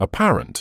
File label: "apparent", medical english uk